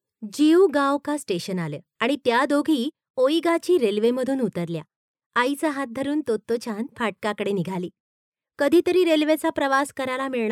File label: female marathi voice over narrative